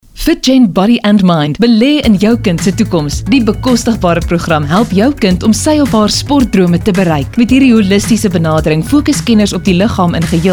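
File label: AFRIKAANS, RADIO ADVERTISEMENTS; FITGEN; VOICE-OVER WORK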